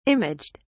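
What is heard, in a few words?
"imaged", British English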